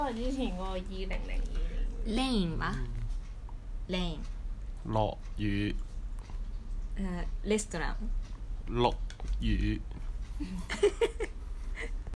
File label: Cantonese